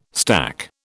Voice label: "stack", British

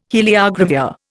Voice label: "heliogravure", Indian

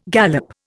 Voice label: "gallop", Indian

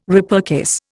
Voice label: "repurchase", Indian

